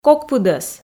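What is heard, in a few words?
"кок пыдӧс", Komi, female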